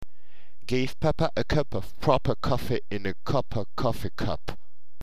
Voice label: papa, tongue twisters